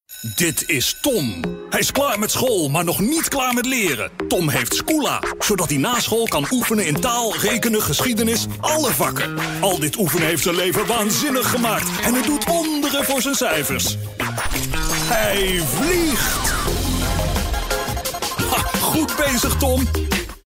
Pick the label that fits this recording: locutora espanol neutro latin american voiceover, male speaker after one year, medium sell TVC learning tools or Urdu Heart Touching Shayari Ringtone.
medium sell TVC learning tools